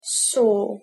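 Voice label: "saw", English; verbs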